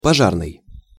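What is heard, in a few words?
"pozarnyj", Russian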